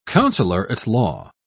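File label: "counselor-at-law", British English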